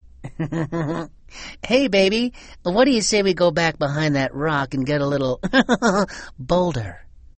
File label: Ringtone